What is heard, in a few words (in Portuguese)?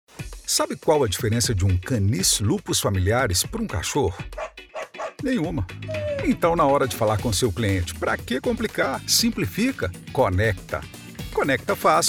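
Natural Conversado, Locução Publicitária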